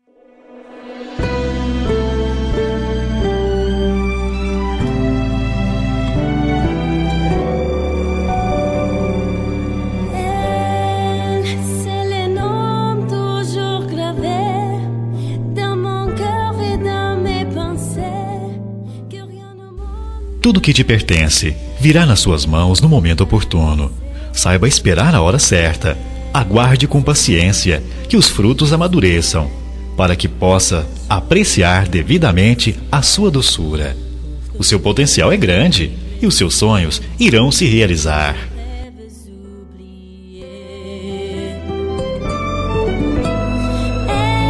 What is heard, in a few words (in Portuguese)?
Otimismo Geral Masculino, telemensagem